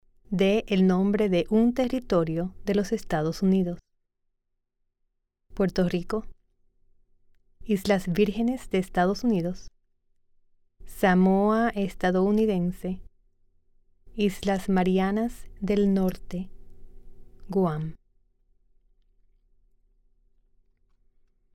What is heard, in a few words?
Spanish